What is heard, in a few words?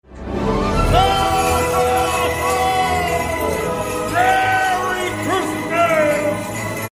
santa ho ho ho merry christmas ringtone